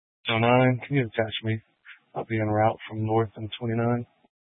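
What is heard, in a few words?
Police Dispatch, Scanner audio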